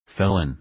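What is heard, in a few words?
"felon", English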